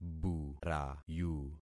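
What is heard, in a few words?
"burayu", concatenated syllables; Affixing experiment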